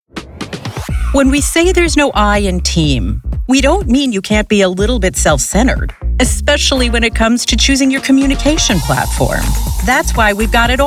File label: corporate narration